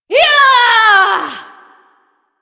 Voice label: Ability Long Cast, Barks; Warframe; Corpus Lich; Persona D